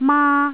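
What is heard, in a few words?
"maa1", Cantonese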